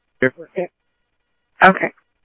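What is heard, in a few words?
Sheriff East County, scanner audio trunk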